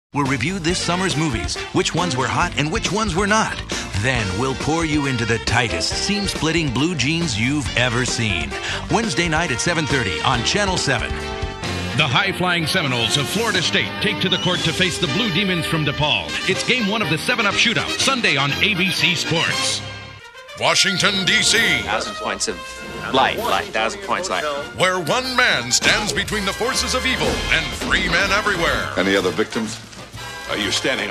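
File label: Promo Short, Voiceover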